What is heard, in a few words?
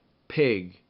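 "pig", English; ring not rhyming; Rhyme Test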